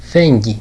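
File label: "feggei", Greek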